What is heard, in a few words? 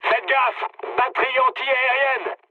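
French damaged aa mood med; War Thunder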